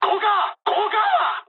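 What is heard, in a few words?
bailout mood med, speech japanese; War Thunder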